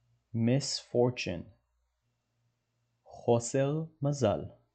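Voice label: "misfortune" English, "חוסר מזל" Hebrew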